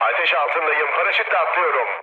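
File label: Turkish on fire, mood low, speech; War Thunder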